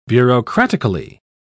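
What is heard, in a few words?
"bureaucratically", British English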